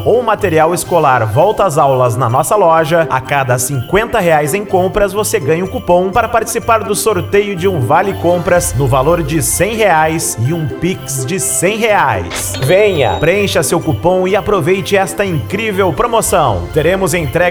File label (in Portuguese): Locutor Armarinho E Papelaria; Sao Francisco Do Gloria MG